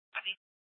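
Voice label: Police Department North Dispatch, scanner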